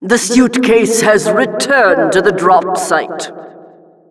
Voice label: announcer drop site